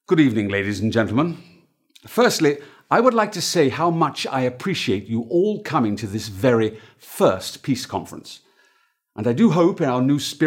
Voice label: speech M Voiceover; Bond; You Only Die Once